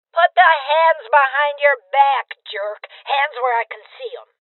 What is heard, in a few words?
Order To Surrender Female Old; Voices OrderToSurrender FemaleOld